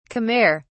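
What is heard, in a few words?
"khmer", British English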